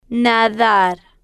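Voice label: "nadar", Spanish, female, slow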